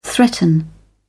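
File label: "threaten", British English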